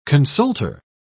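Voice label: "consulter", British English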